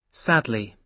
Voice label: sadly